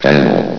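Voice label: "panel", male synthetic announcer voice; source engine; hl1; video games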